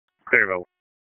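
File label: EMS DISP, radio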